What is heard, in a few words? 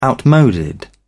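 "outmoded", British English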